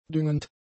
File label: "düngend", German; adjectives